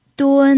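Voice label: "dun", Mandarin Chinese, first tone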